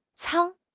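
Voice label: "舱", Chinese; HSK6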